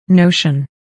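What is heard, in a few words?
"notion", English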